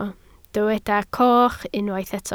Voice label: "coch", Welsh

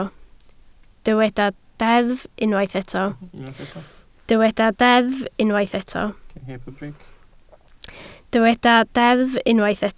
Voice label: "deddf", Welsh

